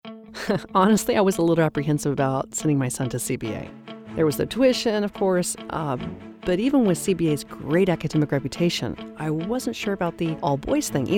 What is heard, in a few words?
radio; CBA fall open house